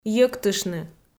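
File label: "йӧктыштны", Komi, female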